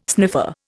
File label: "sniffer", Indian